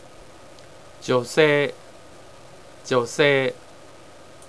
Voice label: female; japanese